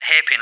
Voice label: HAIRPIN, English, CoDriver

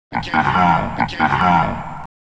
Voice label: VOICEFX TEKNO 140, Techno, Voice

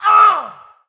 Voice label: german; SpecOps2 MPlayer Demo

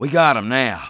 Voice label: taunt, English Voice

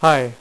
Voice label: "high", Dealer's Speech; PowerPOKER Demo

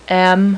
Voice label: "m", female, alphabet; Web Clip Empire 150,000

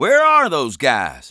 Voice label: conversation, English Voice

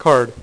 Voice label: "CARD", Dealer's Speech

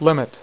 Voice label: "LIMIT", Dealer's Speech

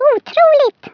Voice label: "Amazing", Swedish, Speech; 11khz; International

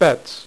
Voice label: "bets", Dealer's Speech; PowerPOKER Demo

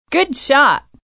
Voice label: female "good shot"; Survivor The Interactive Game; Player

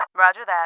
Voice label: roger, English

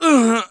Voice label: killed, English Voice

